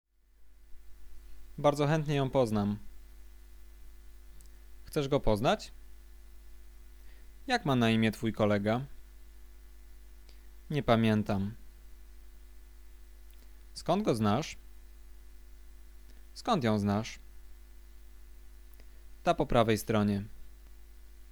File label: conversation; lektorek; firstyear